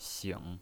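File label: "xing", pinyin voice, third tone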